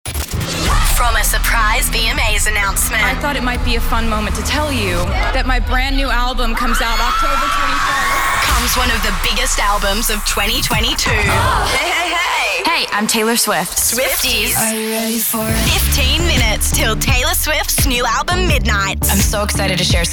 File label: VO Promos